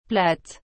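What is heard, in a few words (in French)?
"plate", French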